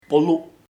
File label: bald, chamacoco; calvo